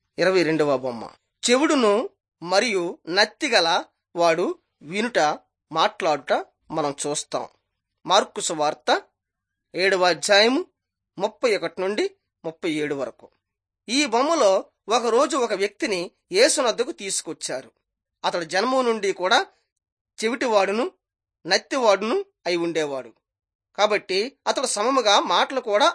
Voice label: telugu bangladesh; jesus teacher and healer